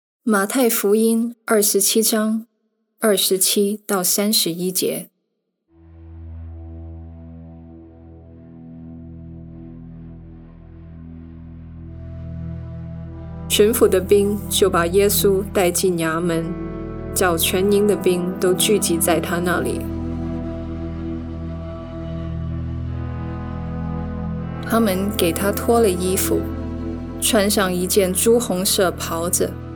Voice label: Voice Over Chinese; Journey to the Cross